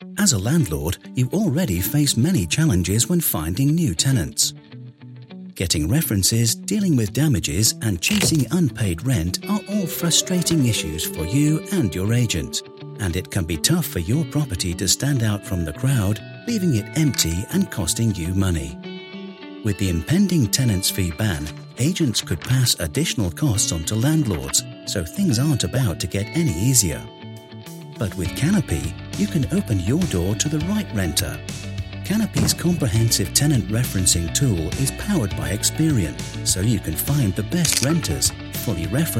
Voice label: British Voiceover Explainer Animation; CANOPY INSURANCE APP; LANDLORD

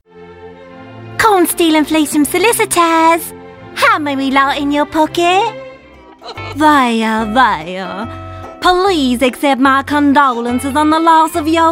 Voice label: Animation Reel

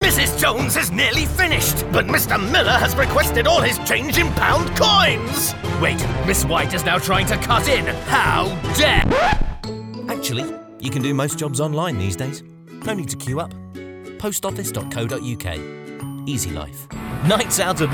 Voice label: commercial voice reel

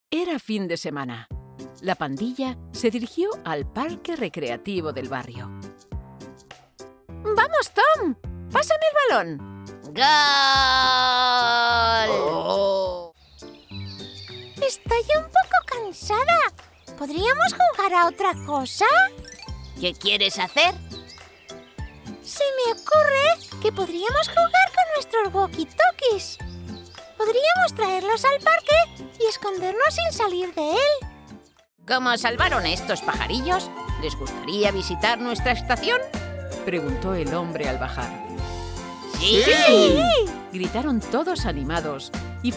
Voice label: CHARACTERS CHILDREN FAIRYTELLS

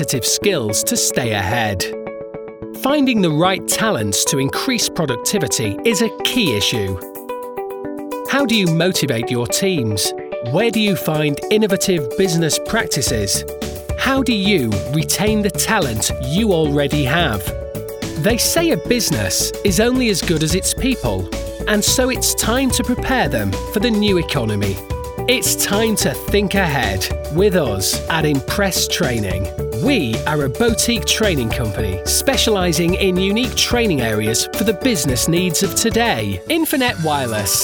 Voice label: Corporate

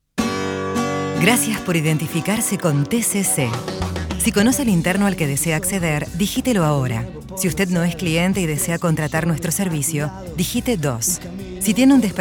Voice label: Spanish telephone IVR

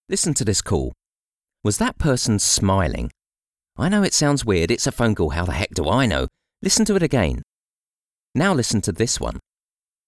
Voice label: Home Studio

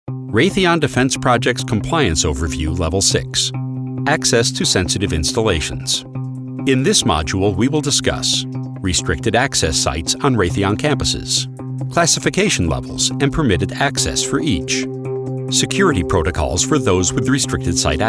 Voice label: E Learning

